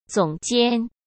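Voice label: "总监" Mandarin Chinese; chinese-flashcards; hsk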